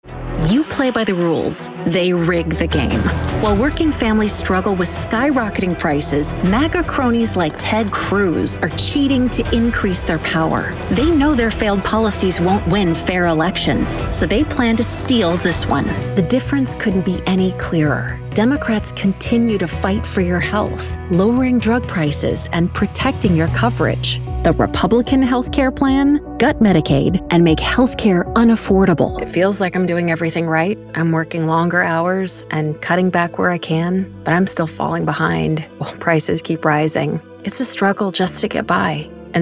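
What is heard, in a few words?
Political, Female Voice Over; American Female Voice Actor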